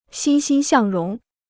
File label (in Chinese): "欣欣向荣", Chinese